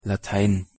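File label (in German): "latein", German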